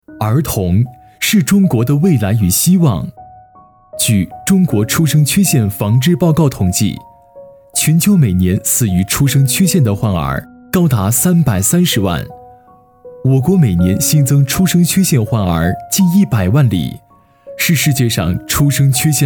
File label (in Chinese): 专题片沉稳